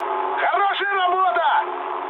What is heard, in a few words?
player killed enemy, mood med, speech ru; War Thunder